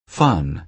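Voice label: "fun", American English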